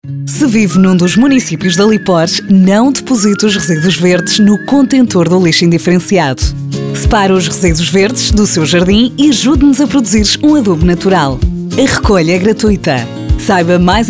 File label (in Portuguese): Spot Radio; RECICLA TE